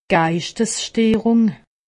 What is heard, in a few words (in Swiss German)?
"geistessteerung", alsace; medecine